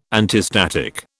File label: "antistatic", British